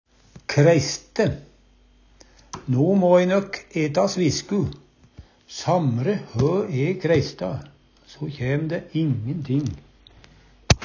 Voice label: kreiste, Numedalsmål

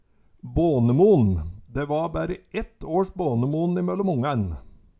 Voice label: banemon, Numedalsmål; De va bære ett års bånemon imøllom ongæn

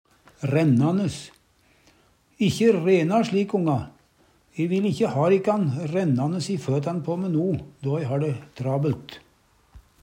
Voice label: raennanes, Numedalsmål

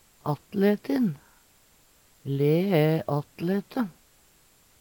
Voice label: attletin, Numedalsmål, Lee æ attlete.; Adjektiv

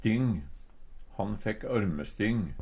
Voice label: styng, Numedalsmål; Substantiv inkjekjønn

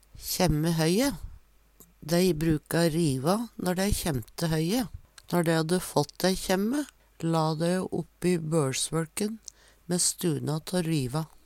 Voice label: kjemme høye, Numedalsmål

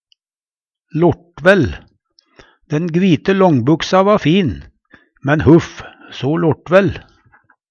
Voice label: lortvell, Numedalsmål; Adjektiv

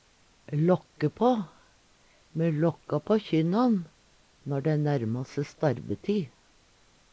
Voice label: lakke pa, Numedalsmål; Uttrykk; Veggli

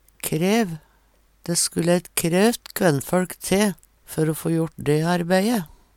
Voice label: krev, Numedalsmål

